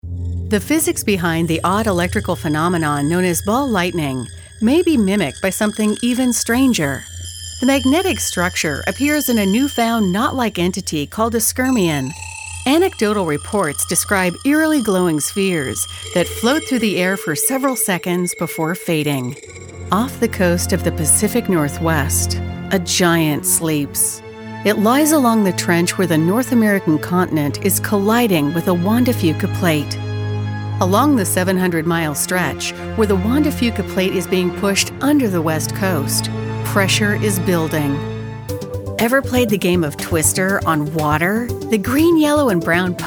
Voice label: Narration